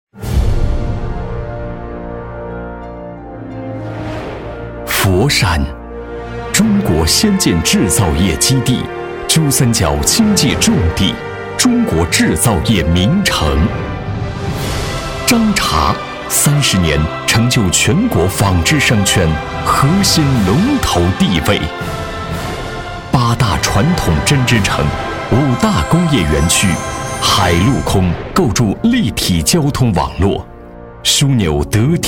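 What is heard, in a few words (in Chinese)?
男国大音-房产城宣-大气宣传; 广告配音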